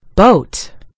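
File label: "boat", American English; gcompris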